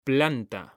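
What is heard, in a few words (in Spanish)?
"PLANTA", Spanish; mekanta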